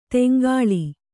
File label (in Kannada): "ತೆಂಕಳ", Kannada